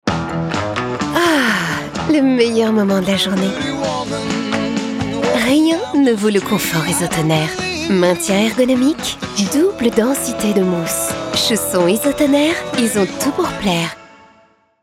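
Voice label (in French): F FR PUB TV, French, female; ISOTONER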